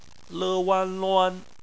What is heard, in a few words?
"luan", Chinese; phonetics